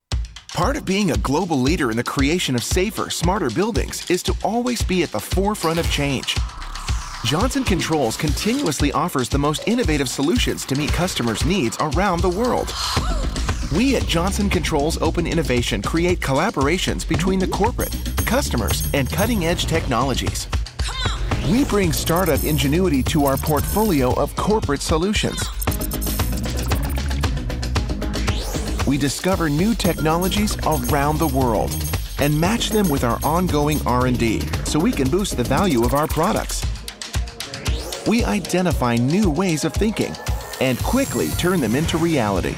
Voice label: Explainer, explainer voiceovers; Johnson Controls